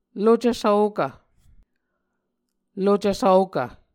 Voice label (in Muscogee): "lucv svokv", Muscogee